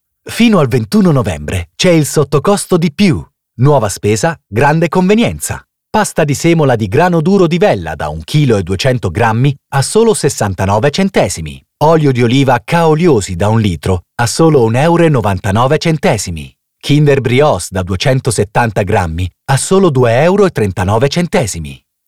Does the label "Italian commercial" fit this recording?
Yes